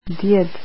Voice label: grandfather, croatian

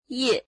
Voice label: page, mandarin

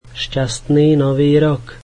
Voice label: Happy new year, slovak

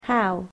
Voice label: "how", english

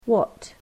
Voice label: "what", English

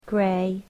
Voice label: "grey", English

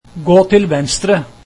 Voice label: Turn left, norwegian

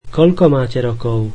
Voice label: How old are you, slovak

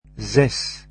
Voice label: "six", Dutch

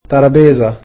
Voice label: "table", egyptian

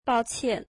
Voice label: Sorry, mandarin

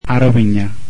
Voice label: Arabic; amharic

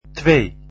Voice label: "two", Dutch

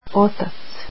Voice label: "father" croatian

